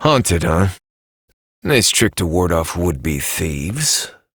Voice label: Adult Op, en; New War; Ascend Tower